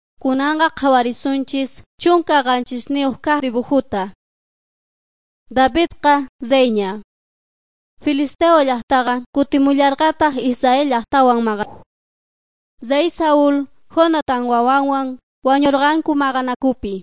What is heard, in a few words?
quechua bolivian cochabamba; servants of god